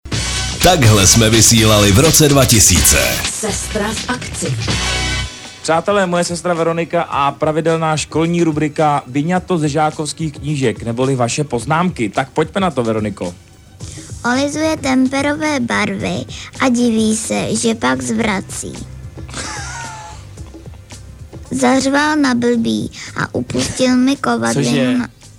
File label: liner; evropa2; narozky e2